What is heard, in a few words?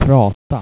"prata", swedish